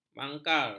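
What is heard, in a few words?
"mangkar", Malay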